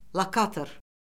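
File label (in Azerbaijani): "lokator", Azerbaijani; Orfoqrafiya Lüğəti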